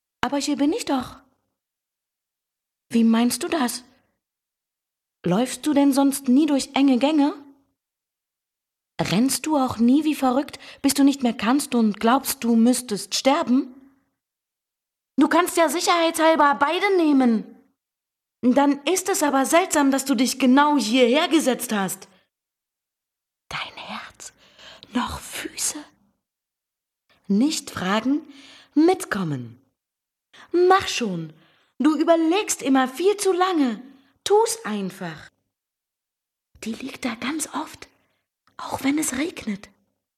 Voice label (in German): sprechprobe maedchen